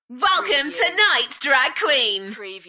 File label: UK female, Welcome tonights drag queen, Enthusiastic; beanstalk audio